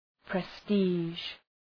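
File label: "prestige", English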